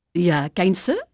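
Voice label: Dutch female, voice; Pirates Of The Caribbean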